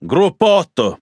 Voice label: It Speech Pilots Torpedo ID; World Of Warships